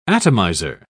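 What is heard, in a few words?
"atomiser", English, uk British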